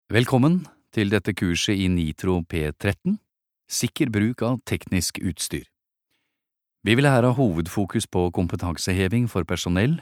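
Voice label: Norwegian elearning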